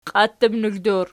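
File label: "qiattutnokl" plural, Archi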